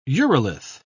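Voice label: "urolith", British English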